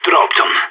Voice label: enemy down, radio; SAS